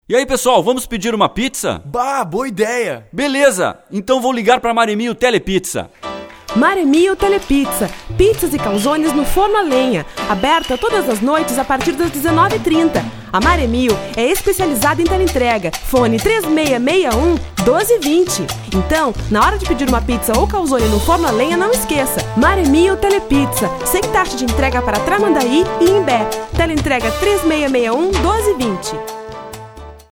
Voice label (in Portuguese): spot